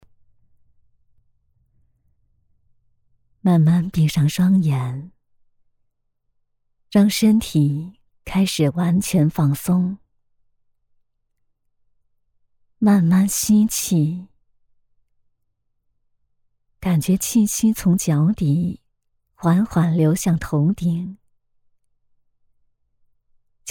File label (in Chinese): 治愈助眠放松